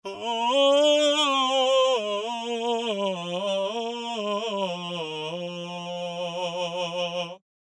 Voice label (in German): Mit Reel ADT, ein Vocal-Preset; Waves Abbey Road Studios Reel ADT